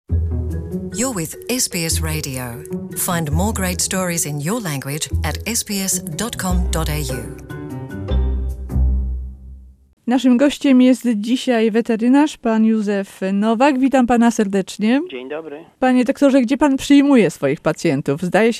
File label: polish; Wildlife rescue; SBS Polish